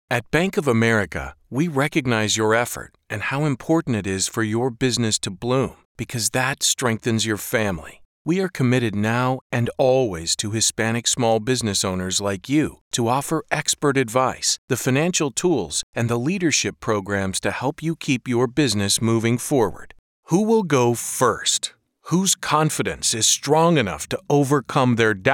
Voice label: Corporate Narration